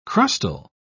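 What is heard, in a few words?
"crustal", British English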